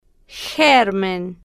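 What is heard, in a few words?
"germ", Spanish, female, slow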